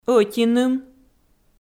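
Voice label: "ӧтиным", Komi, female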